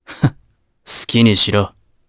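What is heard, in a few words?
vox dia Japanese; kingsraid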